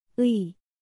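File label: "ㅢ", Korean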